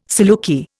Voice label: "saluki", Indian